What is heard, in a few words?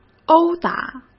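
"殴打", Mandarin Chinese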